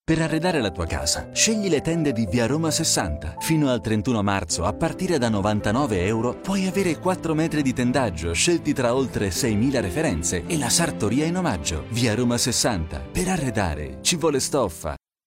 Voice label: Italian TV Commercial

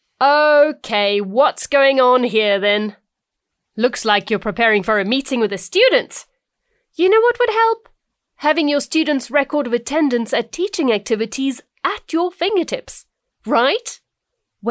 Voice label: English Fun Online Tutorial

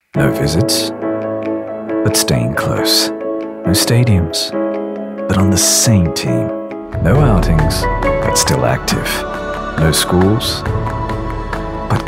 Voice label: ENGLISH VOICEOVER

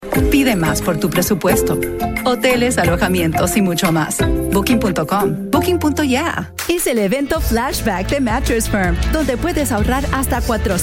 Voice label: Commercial Spanish

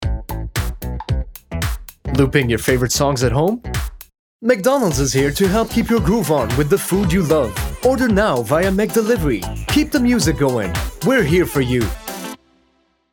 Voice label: Radio Announcer; Spotify; McDonald's

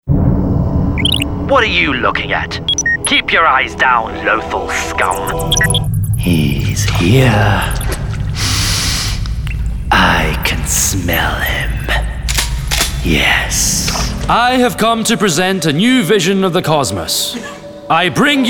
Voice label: Animation

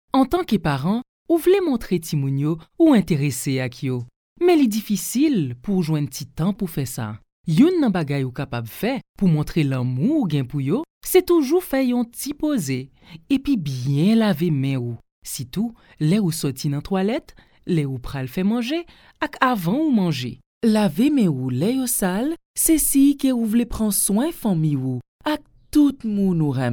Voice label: Haitian Creole Informational

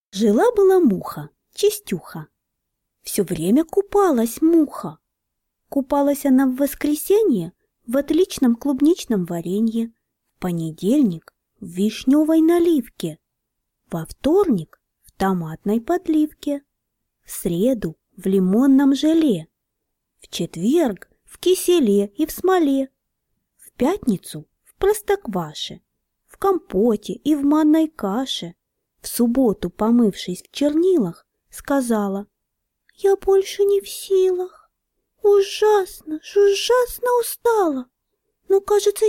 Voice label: Russian poem audiobook story telling

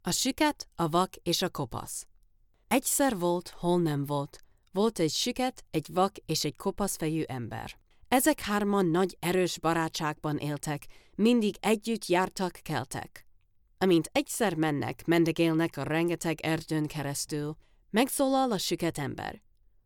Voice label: Hungarian Folk Tale

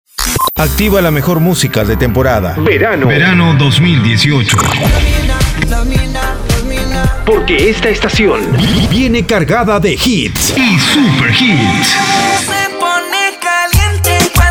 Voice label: Radio Urban Imaging

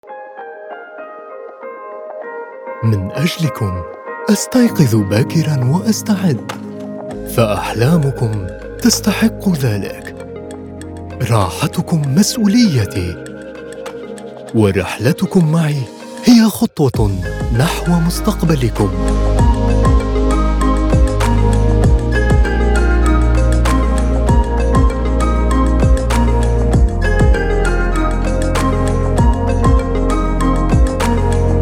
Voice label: Bus Driver Manifesto Narrator; DTC

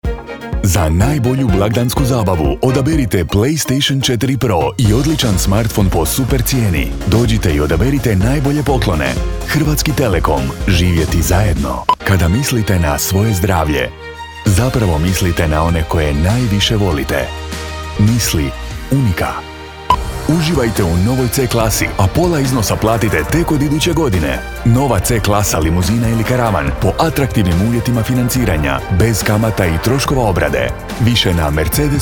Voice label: Commercial

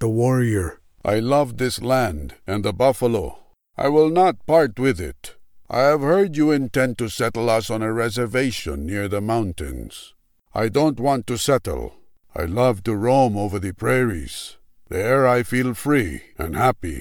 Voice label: Neutral Native American accent

